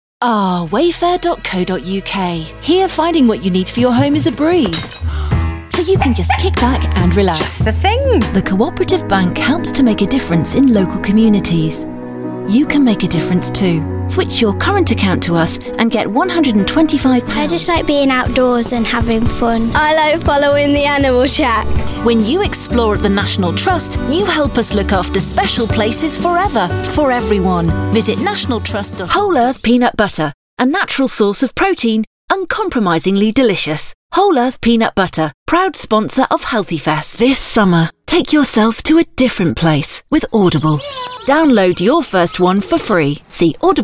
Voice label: english british commercial